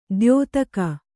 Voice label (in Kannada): "ದ್ಯೋತಕ", Kannada